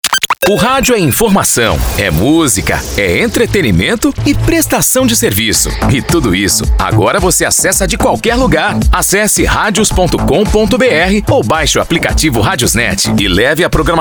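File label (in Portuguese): O rádio é informação música, masculino; RadiosNet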